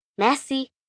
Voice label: French Thank U, Etiquette, Foreign, 1 girl, 9 yrs., French; Talking; Voice Box